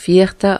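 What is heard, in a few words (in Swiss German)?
"viarta", Alsatian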